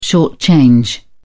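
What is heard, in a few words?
"short-change", British English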